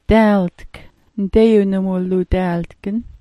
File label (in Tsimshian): "daaltk", Sm'algyax